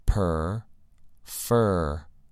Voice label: "purr" "fur"